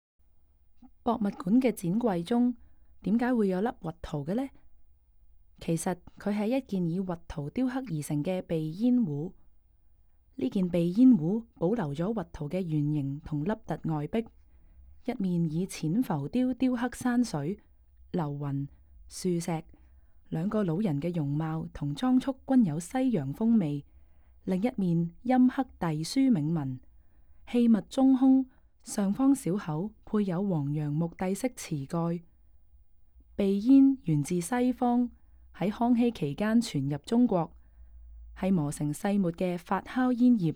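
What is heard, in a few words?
Cantonese, audio guide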